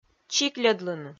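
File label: "чикльӧдлыны", Komi-Zyrian, female; kpv audio dict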